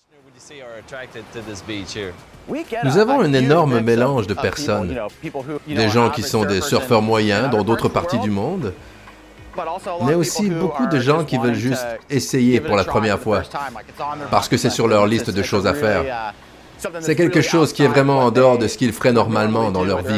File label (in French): Surimpression vocale